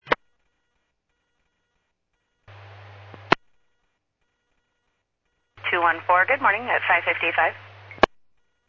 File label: Medevac, scanner; NativeAirAmb